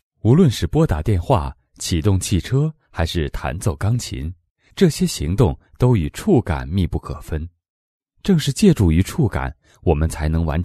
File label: Chinese Mandarin docu; Foreign Voices